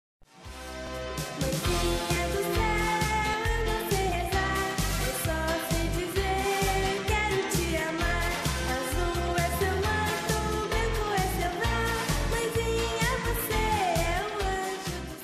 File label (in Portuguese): voz infantil mae masc; dia das maes para minha mae voz feminina infantil